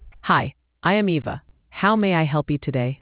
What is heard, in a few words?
conversation